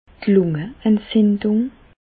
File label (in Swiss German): "lungeentzindung", Bas Rhin; medecine; pneumonie